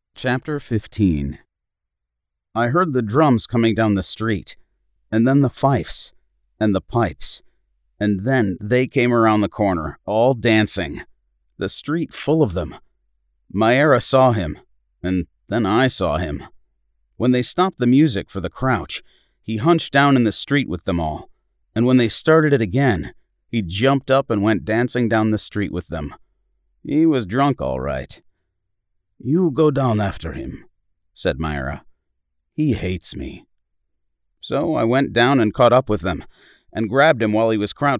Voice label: Literary Fiction, Classics 1st Person M-M Dialogue